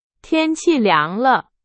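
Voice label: Chinese, example sentence; hsk2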